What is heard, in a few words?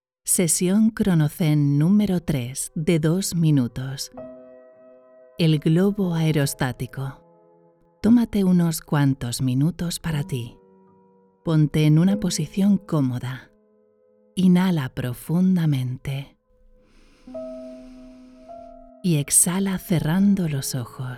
MEDITATION ES